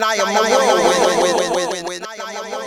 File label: Whirlwind, MCs, Hip-Hop; Hydroponic Hip-Hop